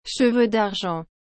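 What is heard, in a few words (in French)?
"cheveux d’argent", French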